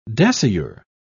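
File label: "dasyure", English, British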